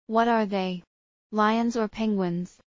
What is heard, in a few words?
fast; assessment